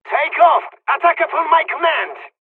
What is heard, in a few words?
japanese first wave, dialogs turkish; War Thunder